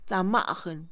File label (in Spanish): piedra para afilar, Mixe, Mujer; Acciones; INALI